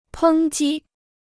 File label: "抨击", Chinese